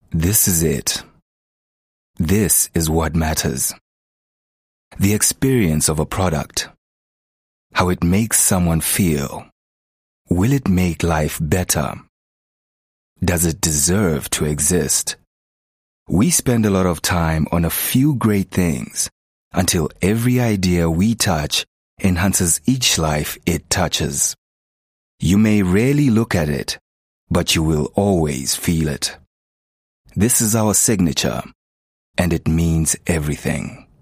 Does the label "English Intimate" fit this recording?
Yes